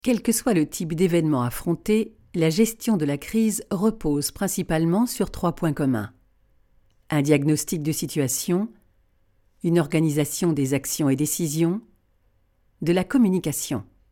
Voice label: E Learning; Dassault

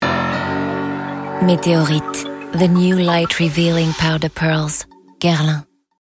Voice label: PUB ENG; Guerlain Meteorites

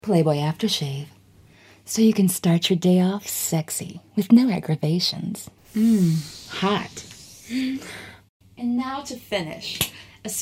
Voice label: BINAURAL; PLAYBOY AFTERSHAVE

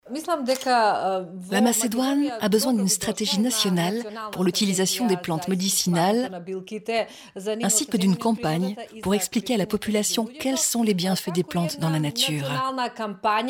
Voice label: voice over

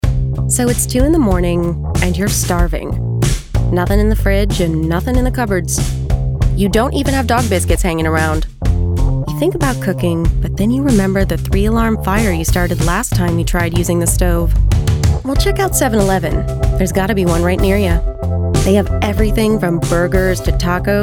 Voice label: Fun charming comedic

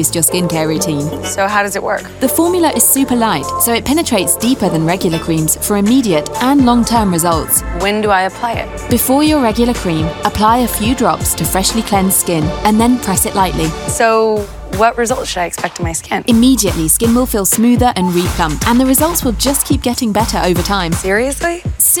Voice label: Serum Conversational; L'Oreal Revitalift

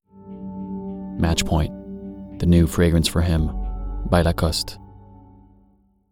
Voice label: Signature US; Lacoste

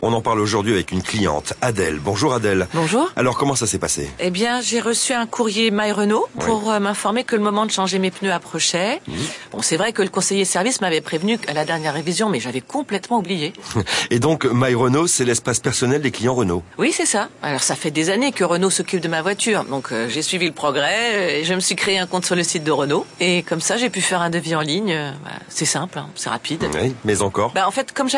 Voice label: infomercial; RENAULT

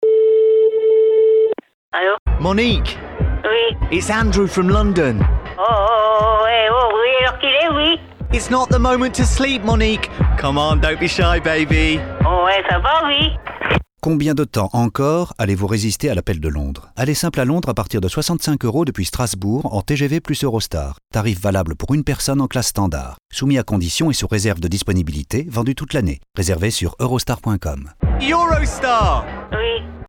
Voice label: RADIO; EUROSTAR; STRASBOURG; web night club

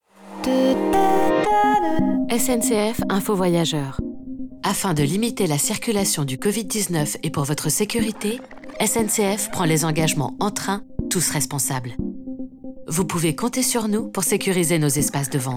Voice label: SPOT RADIO; SNCF; COVID

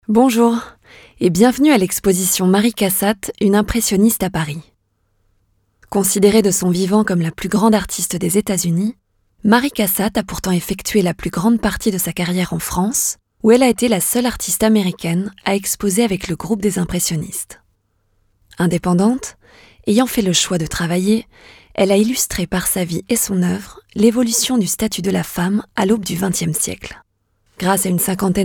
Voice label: Audioguide

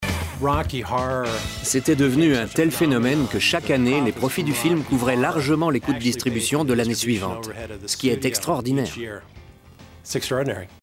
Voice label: voice over; MIDNIGHT MOVIES

